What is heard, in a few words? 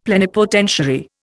"plenipotentiary", Indian; Dictionary